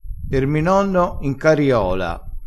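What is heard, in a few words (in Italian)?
"cariola", dialetto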